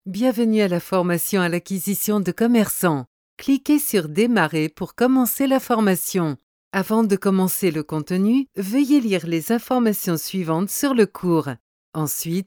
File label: Elearning